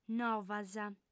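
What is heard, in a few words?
"novas", Sardinian, female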